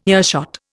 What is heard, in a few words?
"earshot", Indian